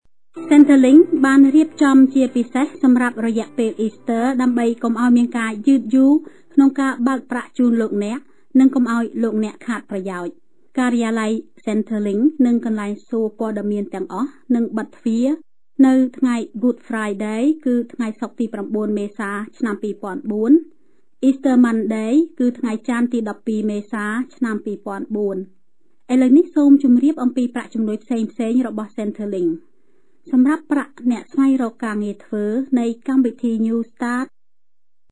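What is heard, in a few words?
khmer female voice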